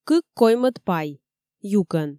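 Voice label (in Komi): "кык коймӧд пай юкӧн", Komi, female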